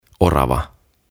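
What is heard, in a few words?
"Orava" Finnish; Squirrel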